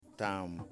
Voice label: "Five", Yimas